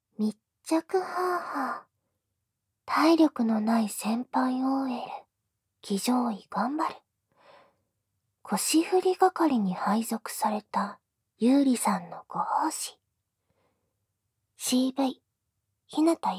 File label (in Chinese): 标题 朗读; 紧贴急促呼吸; 体力不行的前辈OL会努力练习骑乘位～被分配成为摆腰负责人的优莉小姐的侍奉～; 无SE